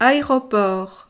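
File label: "aeroport", French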